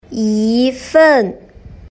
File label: "yifen" Chinese